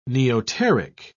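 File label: "neoteric", British English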